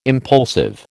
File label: "impulsive", American